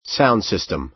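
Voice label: "sound system", American English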